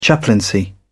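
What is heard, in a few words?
"chaplaincy", British English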